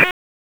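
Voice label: Police Spec Ops Tac, radio; Raleigh; wake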